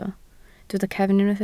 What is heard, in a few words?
"cefn", Welsh